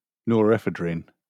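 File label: "norepinephrine", British English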